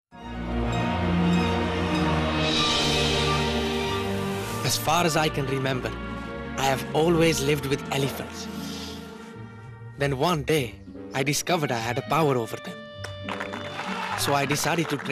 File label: male english multiple accents compilation